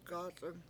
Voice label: cut wood, Washo; gAc7ung